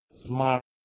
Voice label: "smar", Proto Germanic